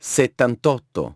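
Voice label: "seventy eight", English; iOS 4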